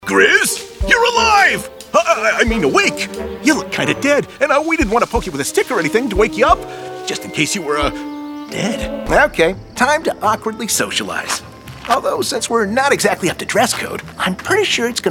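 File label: animation men, Voice Over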